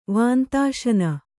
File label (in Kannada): "ವಾಂತಾಶನ", Kannada